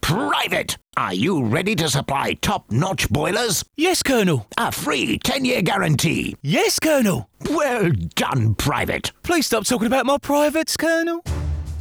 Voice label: radio advert